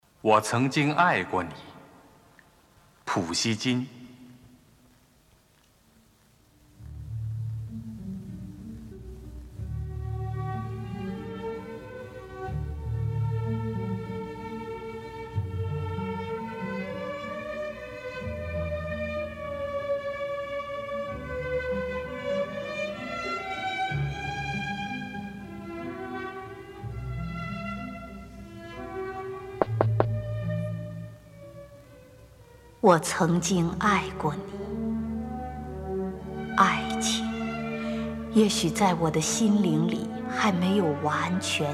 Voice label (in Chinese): 诗歌朗诵; 丁建华; 乔榛; 我曾今爱过你